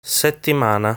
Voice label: "Settimana", Italian